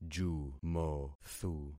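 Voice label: "jumothu", concatenated syllables; Affixing experiment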